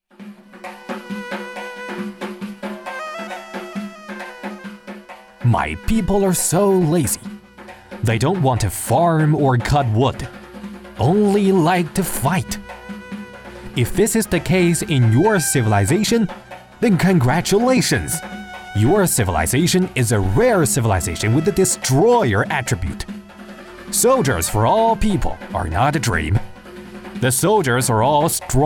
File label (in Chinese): 英文广告游戏广告开头